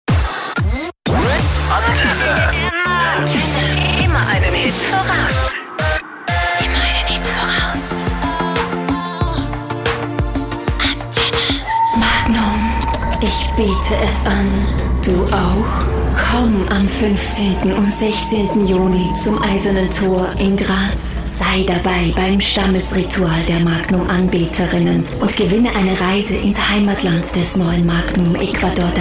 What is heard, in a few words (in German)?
Sprecher Showreel